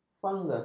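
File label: "fungus", Malay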